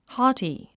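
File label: "haughty", English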